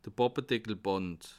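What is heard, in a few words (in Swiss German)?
"pappedeckelband", Bas Rhin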